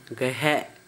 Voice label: bamboo pole, Gta, Dialect: Hill; household

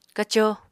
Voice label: "kaco", apatani

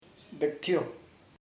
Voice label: "everywhere por todos partes", chamacoco

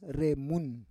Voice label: "remun", Roon

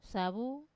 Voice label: "savu", betel nut, Wamesa

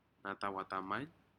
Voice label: "natau atamen", Aneityum; shellfish

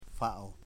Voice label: "gut", Foe

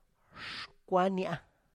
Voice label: "xkwania", my Toe, tlacochahuaya

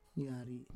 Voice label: "yari", Roon

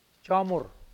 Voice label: "joahmwur", Mokilese

